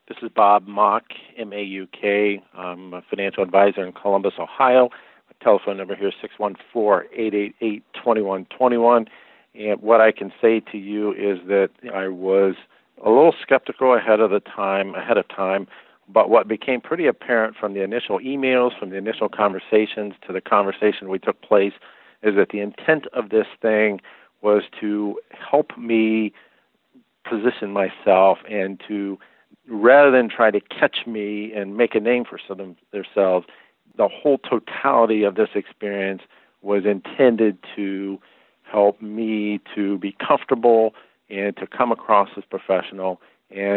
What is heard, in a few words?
Testimonial; Impact Makers Radio